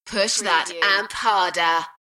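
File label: UK female, DJ vocals; beanstalk-audio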